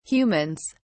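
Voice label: "humans", English, British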